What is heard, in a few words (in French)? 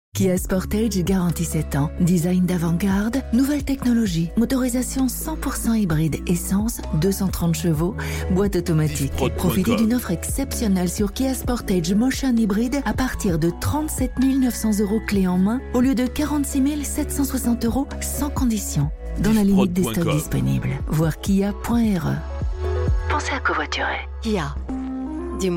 Spot, publicite; Kia; Sportage